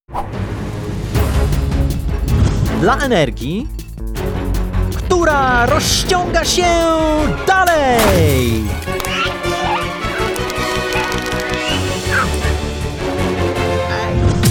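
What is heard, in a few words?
PL Commercial, Polish; GoLocalise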